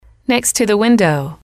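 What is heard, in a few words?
"next to the window", English, female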